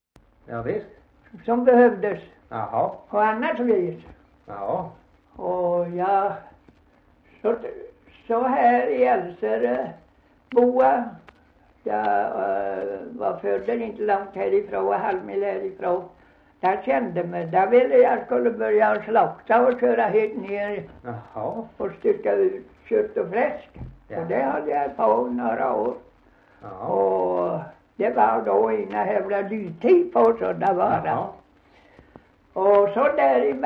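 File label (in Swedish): Gunnarp Halland, dialekter svenska sagor; Folkminnen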